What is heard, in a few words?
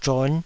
"zhon5", Taiwanese syllable